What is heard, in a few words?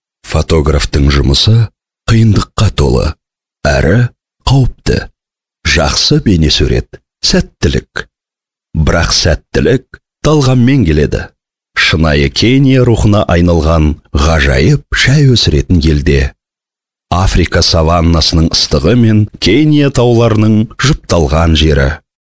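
kazak male narration; voice demos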